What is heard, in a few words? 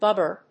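"Bubber", English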